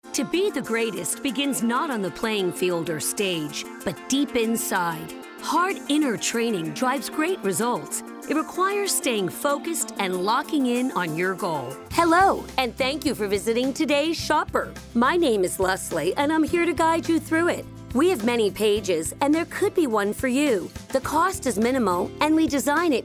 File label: VO Narration